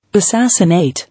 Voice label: "Asssassinate", English

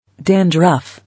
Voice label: "Dandruff", English

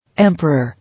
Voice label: "Emperor", English

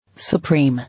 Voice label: "Supreme", English

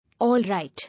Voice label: "All right", English

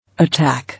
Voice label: "Attack", English

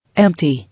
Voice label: "Empty", English

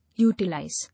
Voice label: "Utilize", English

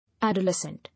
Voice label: "Adolescent", English

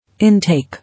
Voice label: "Intake", English

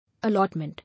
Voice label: "Allotment", English

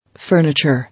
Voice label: "Furniture", English

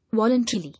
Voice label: "Voluntarily", English

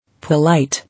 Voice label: "Polite", English

